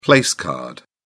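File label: "place card", British English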